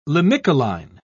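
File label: "limicoline", British English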